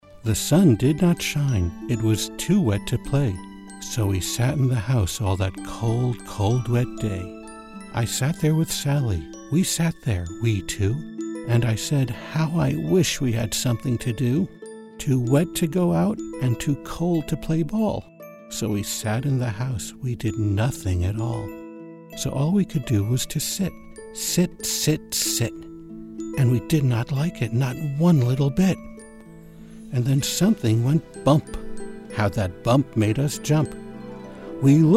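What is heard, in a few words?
Narration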